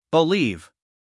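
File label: "believe", American English, male; 1000 hours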